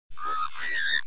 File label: alien voice sound effect